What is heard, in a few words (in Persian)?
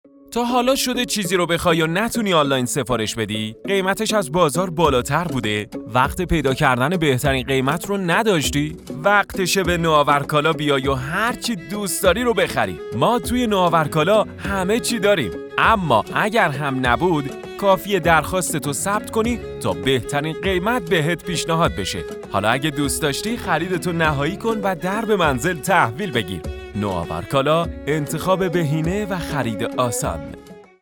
گوینده; نوآورکالا